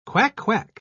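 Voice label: "quack-quack", British English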